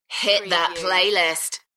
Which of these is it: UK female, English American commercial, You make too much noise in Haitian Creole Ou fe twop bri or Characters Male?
UK female